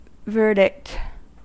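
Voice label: "verdict", American English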